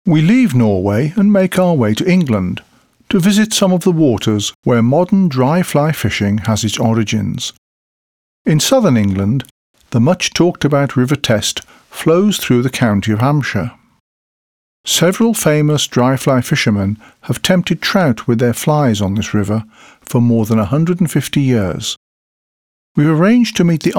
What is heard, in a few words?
UK